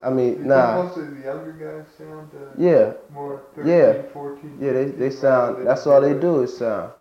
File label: Conversation with 18 year old black male New York New York; american english dialect